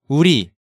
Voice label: "Uri", Korean; Hangeul sounds